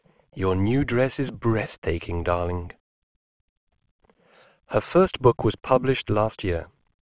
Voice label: male mirror